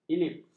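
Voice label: "elips", Malay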